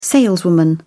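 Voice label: "saleswoman", British English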